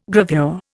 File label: "gravure", Indian